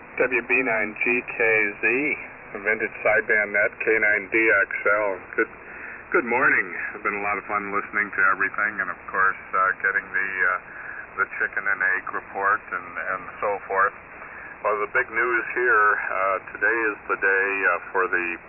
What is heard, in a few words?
Hammarlund HX-50A 75S-3 D-104 Mic & AL-811H Amp